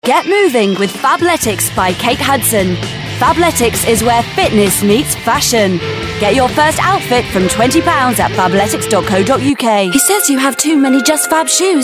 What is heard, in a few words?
Commercial